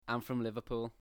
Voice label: scouse

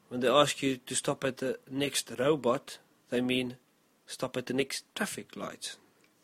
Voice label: South African English; When they ask you to stop at the next robot, they mean stop at the next traffic lights.

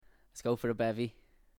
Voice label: scouse

